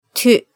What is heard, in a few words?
"tu", hiragana